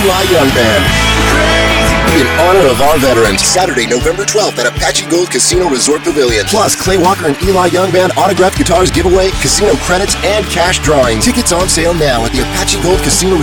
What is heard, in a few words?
Radio Country